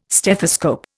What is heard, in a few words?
"stethoscope", Indian